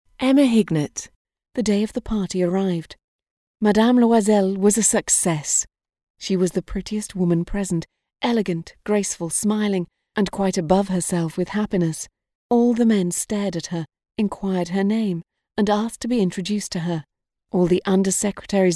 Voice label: narration